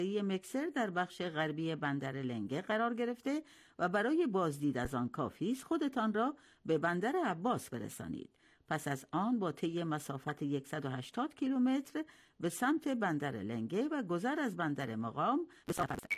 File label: Tajik